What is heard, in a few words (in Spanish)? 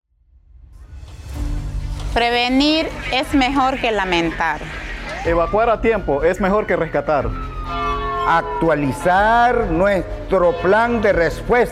Vineta Radial Espanol; Waspan; III Ejercicio Nacional